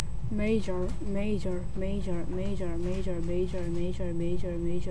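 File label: "major", spoken word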